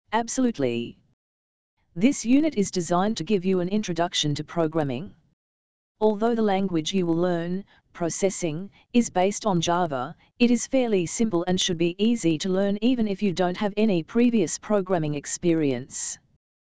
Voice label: dialog